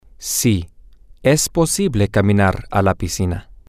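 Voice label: yes it is possible to walk to the pool, Spanish, male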